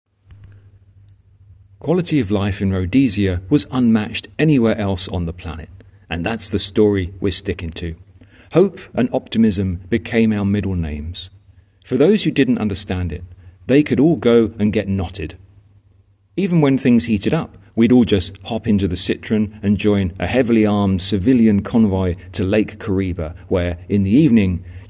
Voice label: voiceover